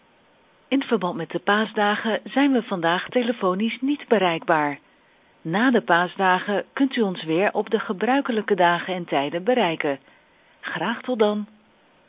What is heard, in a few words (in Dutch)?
meldtekst paasdagen gesloten; Paasdagen gesloten voicemail